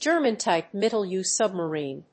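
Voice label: "German Type Mittel U submarine", English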